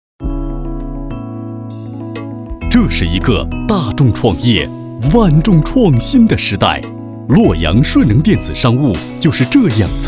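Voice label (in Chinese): 男【宣传】; 时代商务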